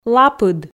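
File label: "лапыд", Komi, female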